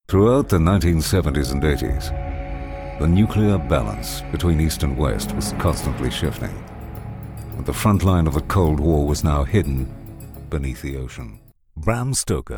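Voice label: English Scots, male, narration, accent of scotland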